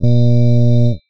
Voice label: male "U"